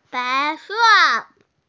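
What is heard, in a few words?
"bai2se4", Mandarin Chinese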